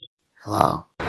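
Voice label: Voice Acting Spanish Female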